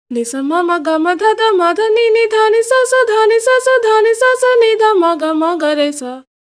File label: voice, pitchshift; izotope radius